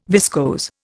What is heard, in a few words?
"viscose", Indian